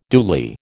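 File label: "duly", American English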